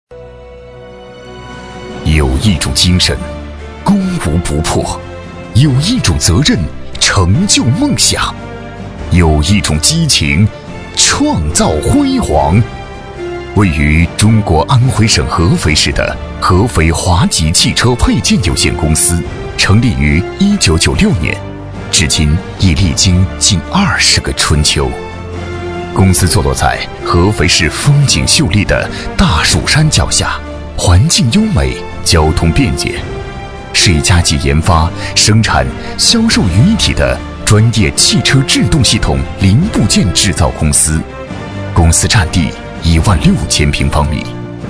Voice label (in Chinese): 男,大气坚定; 合肥华集